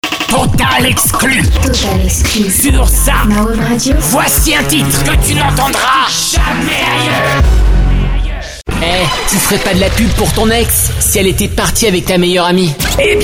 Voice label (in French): Voix Typee